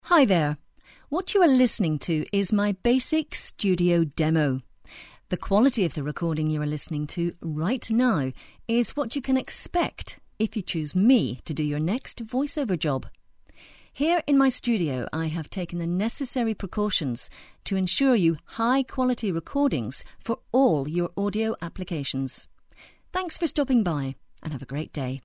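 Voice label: English Northern Irish F, accent of ireland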